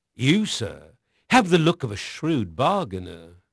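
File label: English male; Pirates Of The Caribbean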